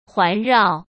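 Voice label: "环绕", Mandarin Chinese; chinese-flashcards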